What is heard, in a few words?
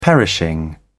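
"perishing", British English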